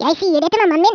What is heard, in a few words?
you'll regret that, Norwegian; Speech; 11khz